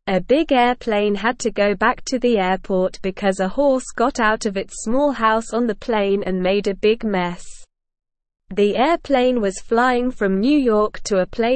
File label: English Lower Intermediate SLOW Reading; Horse Escapes Plane Causes Trouble Needs Help; English Newsroom